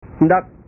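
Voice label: "ndak", Indonesian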